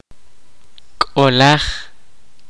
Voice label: kaqchikel; Ball

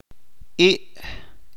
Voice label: "she", Chalchiteko

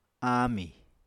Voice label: "áamì", Apatani

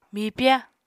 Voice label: "mipya", Apatani

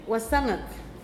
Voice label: "twenty-third day of month", Pingelapese

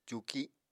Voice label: "cuuki", Apatani; Household Items

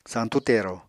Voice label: "santú tero", Apatani

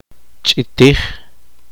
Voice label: poqomchi

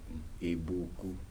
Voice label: "drink made from sorghum or millet", Kibembe

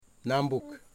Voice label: "wife", Wamut

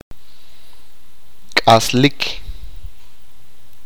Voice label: vivir, achi

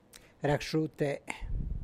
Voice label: San Lucas Quiaviní Zapotec; racxute; feels very sick; se siente muy enferma

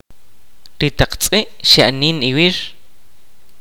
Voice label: kaqchikel; yesterday the dogs were running

